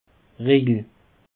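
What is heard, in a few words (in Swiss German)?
"rejel", Alsatian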